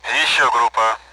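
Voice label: Speech Sound Russian Common AWACS; additional group; DCS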